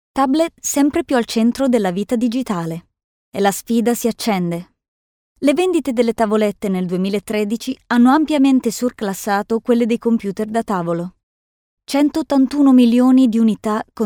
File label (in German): Nachvertonung Film Sprecherin Italienisch